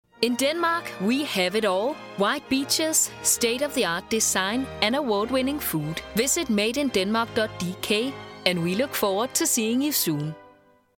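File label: Danish, Accented English; voice demos; GoLocalise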